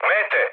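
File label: Serbian enemy detected mood med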